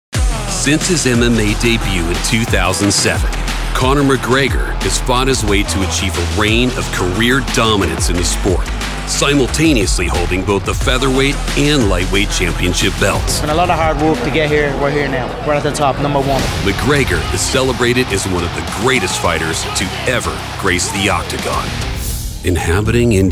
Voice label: TV Narration